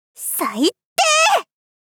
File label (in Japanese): さいってい！ ツンデレ少女×中音域